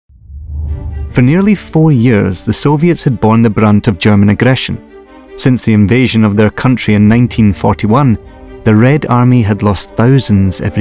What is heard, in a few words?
Narration